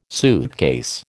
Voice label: "suitcase", American